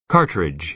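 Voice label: "cartridge", American English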